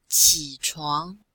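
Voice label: "qǐchuáng", Chinese; Cours de Chinois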